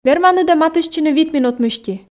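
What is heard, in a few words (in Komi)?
верманныд-ӧ матыстчыны вит минут мысти, Komi, female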